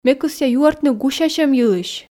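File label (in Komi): ме кӧсъя юӧртны гусясьӧм йылысь, Komi, female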